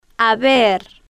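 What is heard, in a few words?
"haber", Spanish, female, slow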